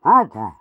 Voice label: Pyro thanks, fr

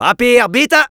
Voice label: Medic auto capped intelligence, fr

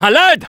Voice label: Spy help me, fr

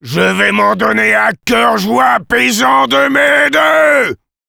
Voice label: Sniper taunts, fr

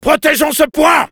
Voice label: Soldier help me defend, fr

